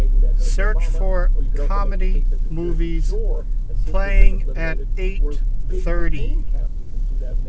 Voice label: single mic in car